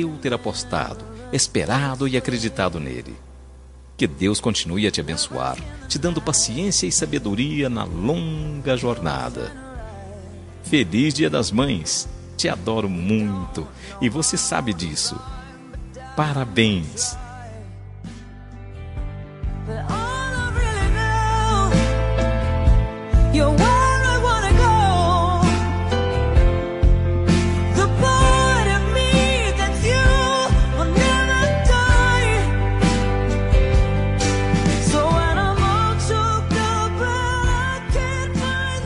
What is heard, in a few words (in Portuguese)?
amiga masc, voz masculina; dia das maes para amiga